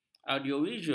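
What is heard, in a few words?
"audiovisual", Malay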